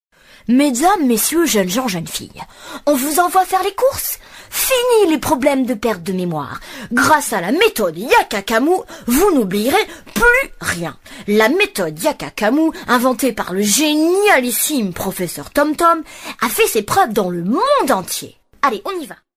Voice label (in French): Voix petit garçon malicieux; Tom-Tom; Tom-Tom et Nana